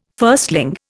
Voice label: "firstling", Indian

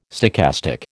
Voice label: "stochastic", American

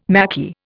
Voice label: "Maquis", Indian

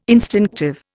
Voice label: "instinctive", Indian

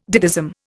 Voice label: "ditheism", Indian

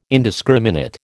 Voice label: "indiscriminate", American

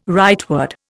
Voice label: "rightward", Indian

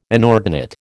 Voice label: "inordinate", American

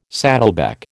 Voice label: "saddleback", American

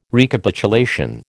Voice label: "Recapitulation", American

